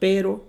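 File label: "pero", Mexican Spanish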